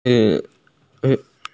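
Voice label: "pencil", Mandarin; qian1bi3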